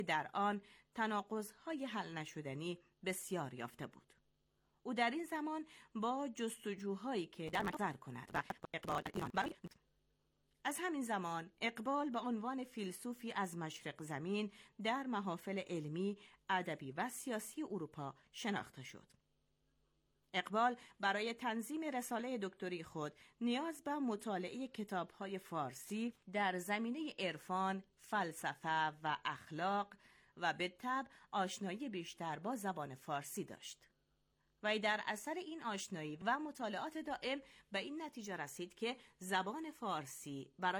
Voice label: Dari